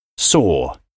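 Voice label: "saw", English; past simple; see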